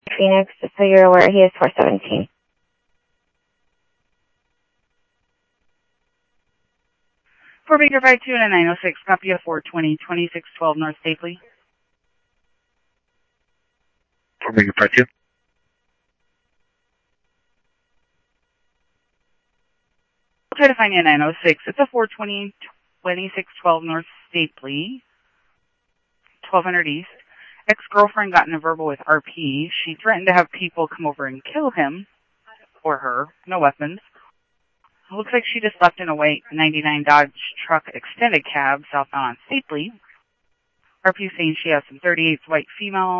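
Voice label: Lakes Sheriff Lake Patrol, scanner audio trunk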